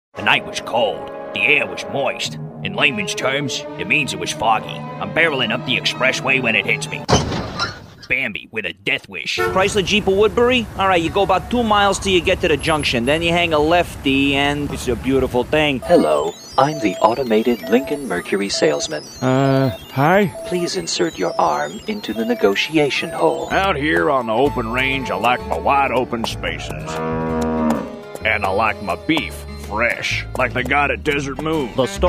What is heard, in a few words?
Characters Male, commercial voiceover